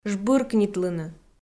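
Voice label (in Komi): "жбыркнитлыны", Komi, female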